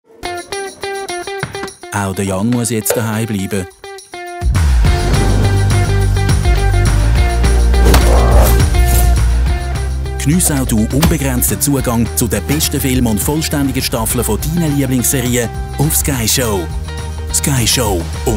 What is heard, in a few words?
tvc; Sky Show – TV-Spot